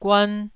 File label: "guan1", Taiwanese syllable